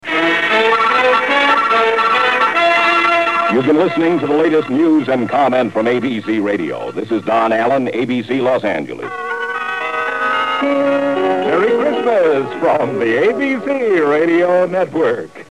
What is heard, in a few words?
newscast sign-off; ABC